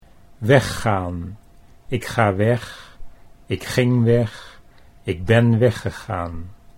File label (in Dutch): weggaan ik ik, Dutch